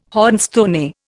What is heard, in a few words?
"hornstone", Indian